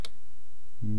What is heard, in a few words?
"nii", East Cree syllabics